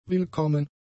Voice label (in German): "willkommen", German; adjectives